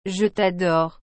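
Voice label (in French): "Je tadore", French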